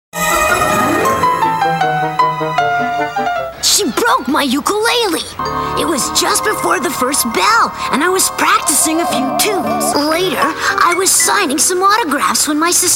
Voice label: animation female